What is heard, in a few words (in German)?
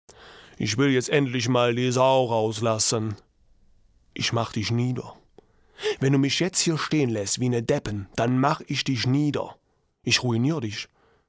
Dialekt